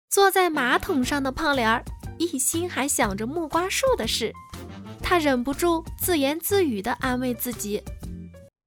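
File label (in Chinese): 儿童读物类, 女配音 青年（18-35） 普通话 温柔甜美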